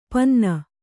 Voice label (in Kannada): "ಪಿನ್ನಿ", Kannada